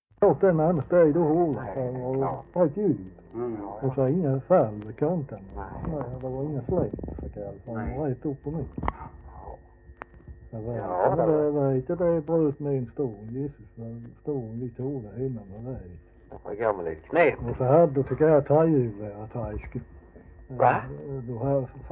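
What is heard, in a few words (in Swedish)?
Glimåkra Skåne, dialekter; Folkminnen; Svenska sagor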